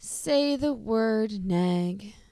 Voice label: SAD, sad emotion